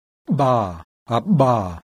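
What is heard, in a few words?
voiced bilabial implosive; IPA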